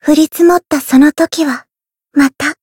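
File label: Voice win, Japanese; yukino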